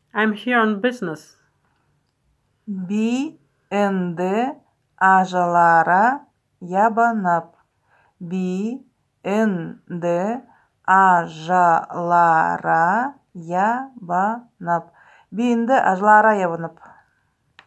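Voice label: I am here on business, Buryat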